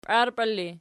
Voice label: "piarpela", Archi